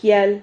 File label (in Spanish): "jial", Silabas